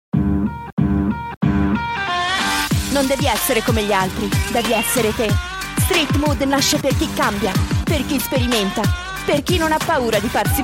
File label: italian commercial